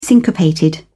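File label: "syncopated", British English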